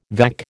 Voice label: "vac", American